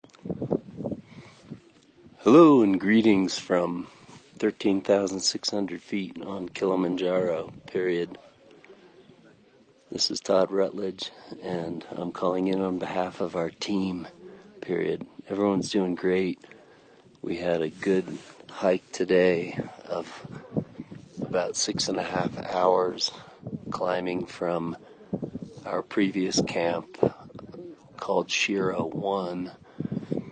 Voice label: Audio Message; team moving up to barranca camp